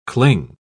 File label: "cling", pronounce